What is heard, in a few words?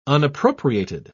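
"unappropriated", British English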